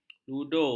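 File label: "ludo", Malay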